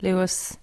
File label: "Luas", irish